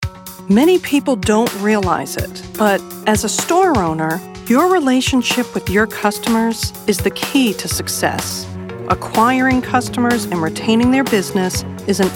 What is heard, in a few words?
Narration